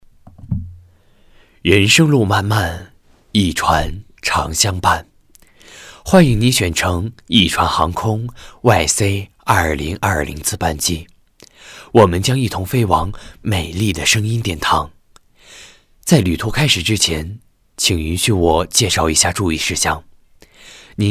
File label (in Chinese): 活动开场配音